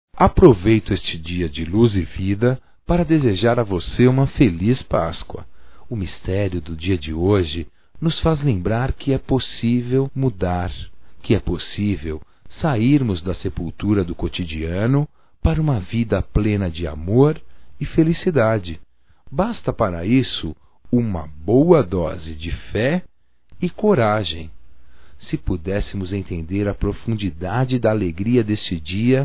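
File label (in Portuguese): PASCOA FAMILIA, Masculino; Telemensagens; Só Voz; Telemensagens 2017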